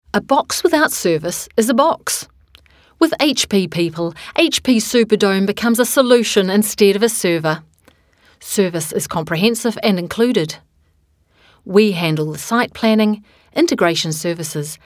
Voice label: formal